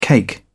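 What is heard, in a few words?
"cake", English, British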